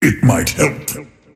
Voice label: lasthit pain; dota; earthshaker; arcana

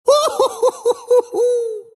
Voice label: monkey laugh; dota; monkey king

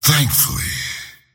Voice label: lasthit pain; slyrak; dota

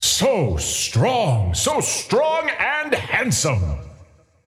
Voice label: scepter announce in game; dota

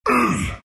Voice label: treant pain

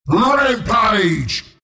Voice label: kill rampage, announcer; killing spree; dota; announcer dlc bristleback

